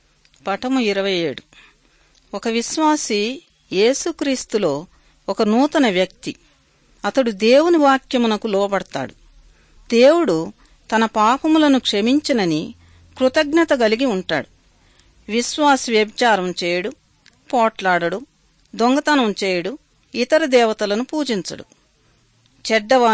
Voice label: good news telugu